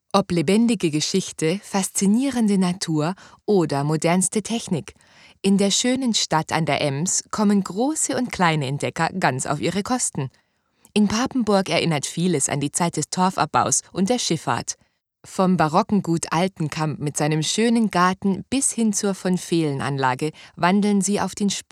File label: female German narration